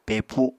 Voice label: "pepu", Apatani; Household Items